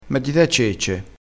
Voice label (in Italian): "cecce", crespinese